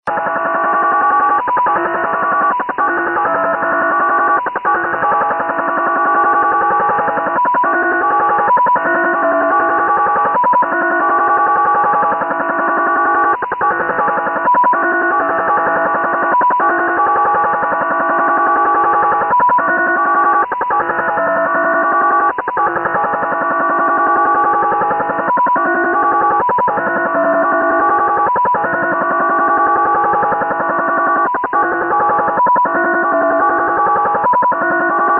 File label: numbers stations